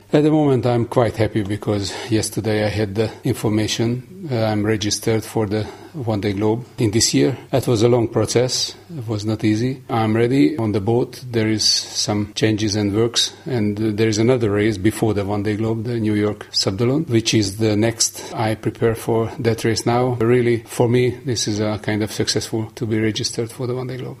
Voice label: reg audio Eng